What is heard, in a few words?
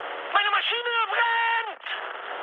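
German on fire mood high, speech; War Thunder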